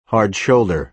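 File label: "hard shoulder", American English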